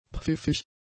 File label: "pfiffig", German; adjectives